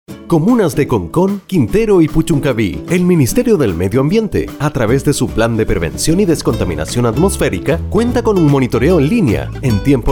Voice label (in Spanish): Difusion radiofonica